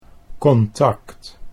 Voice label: "contact", Dutch